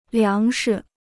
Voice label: "粮食", Chinese